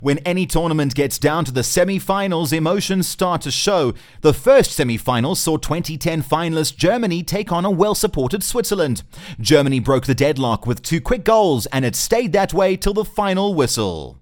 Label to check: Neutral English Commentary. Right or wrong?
Right